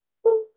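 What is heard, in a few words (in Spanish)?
Voice Acting, Spanish, male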